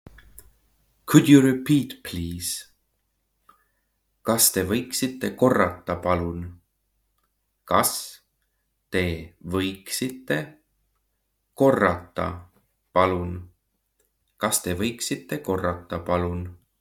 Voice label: Could you repeat please, Estonian phrasebook; the talking estonian phrasebook